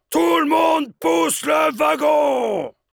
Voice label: Heavy cart moving forward offense, fr